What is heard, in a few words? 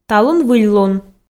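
"талун выльлун", Komi, female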